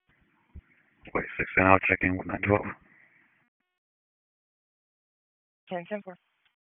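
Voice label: Sheriff's Office Dispatch 1, p25; wake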